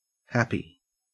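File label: "happy", Australian English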